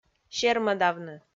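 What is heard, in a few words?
"сермӧдавны", Komi, female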